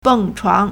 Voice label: "蹦床 bèngchuáng", Chinese; trampoline; Sport